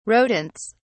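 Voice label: "rodents", British English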